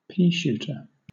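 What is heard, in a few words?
"peashooter" English, British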